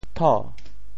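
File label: "tho2", Teochew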